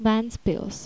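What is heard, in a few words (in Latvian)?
"ventspils", Latvian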